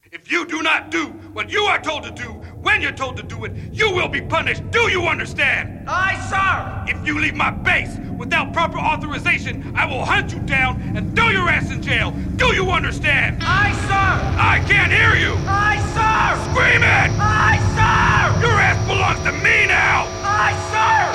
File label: Drill Sergeant